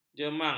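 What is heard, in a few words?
"jemang", Malay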